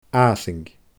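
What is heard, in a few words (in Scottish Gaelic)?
"aasaing", Scottish Gaelic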